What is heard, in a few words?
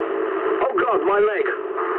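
English damaged pilot mood low; War Thunder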